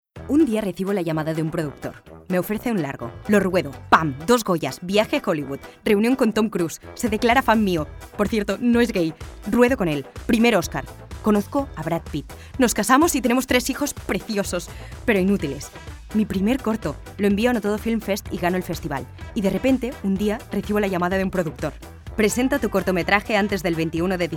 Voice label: female spanish film comercial; ideasonora